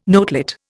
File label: "notelet", Indian